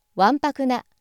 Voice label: female